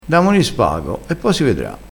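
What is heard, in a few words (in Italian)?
"damoni", dialettale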